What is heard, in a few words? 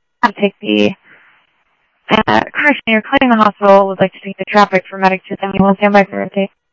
Phoenix Fire, scanner; H-Scottsdale; PHXFD K7